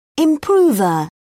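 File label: "improver", British English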